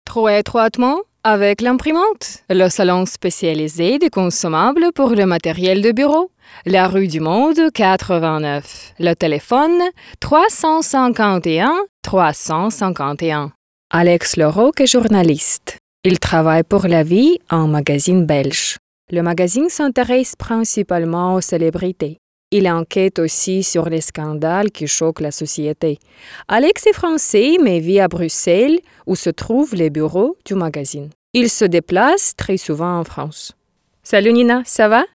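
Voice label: French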